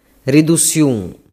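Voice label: "ridusiun", Sicilian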